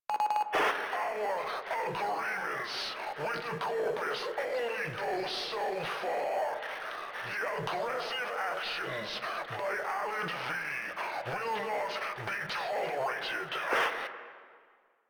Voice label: Announcements, Radio Interceptions